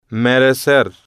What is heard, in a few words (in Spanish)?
"merecer" Spanish